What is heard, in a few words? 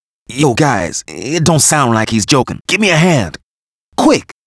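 aim British; Arthur and the Revenge of Maltazard; Wii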